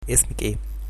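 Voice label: name, Egyptian Arabic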